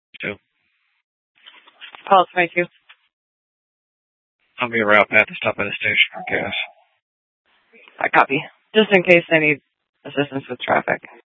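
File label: police department Dispatch, scanner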